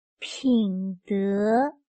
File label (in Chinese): "品德", 汉语